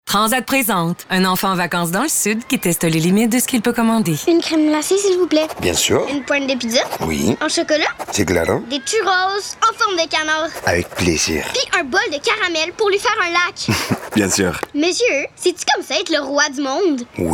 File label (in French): radio; AIRTRANSAT